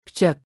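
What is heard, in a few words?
"ផ្ចឹក", Khmer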